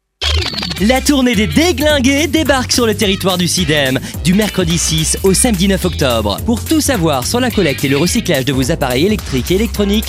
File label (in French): spot radio